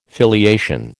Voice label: "filiation", American